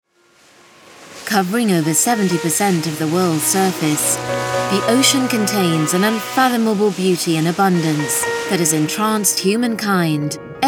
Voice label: corporate showreel, voice overs